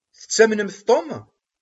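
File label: "Tettamnem Tom?", Kabyle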